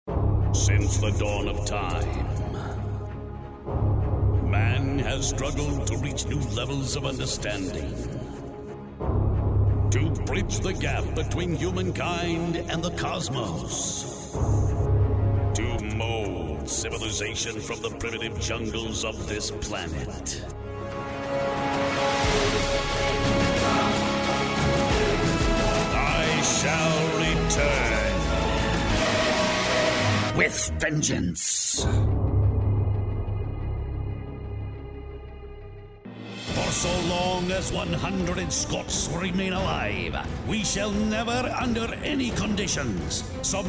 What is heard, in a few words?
movie trailer